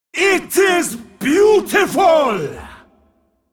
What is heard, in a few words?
Male Dragon Attack